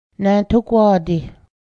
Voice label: over here, Cayuga